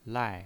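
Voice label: "lai", Mandarin Chinese, fourth tone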